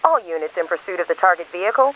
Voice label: cops, English; Driver